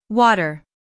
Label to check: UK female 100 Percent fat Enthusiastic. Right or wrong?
Wrong